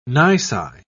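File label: "nisi", British English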